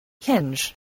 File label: "hinge", English, spelling